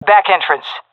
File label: loc back, seal fem; vo; agents; Source Model Packs; Counter Strike Model Pack; CS2